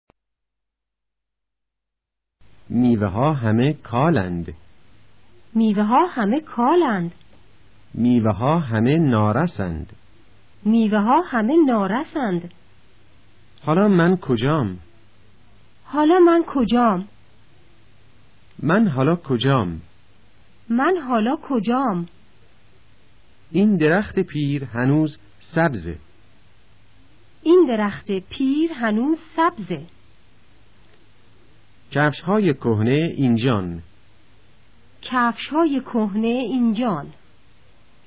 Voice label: colloquial sentence